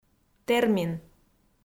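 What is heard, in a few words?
"термин", Komi, female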